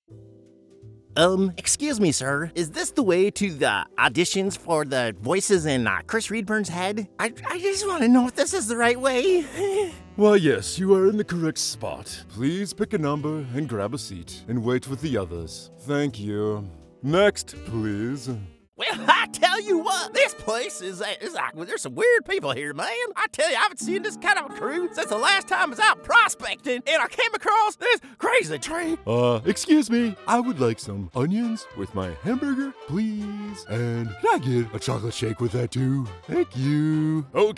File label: Shy Stuffy Heroic Prospector Animation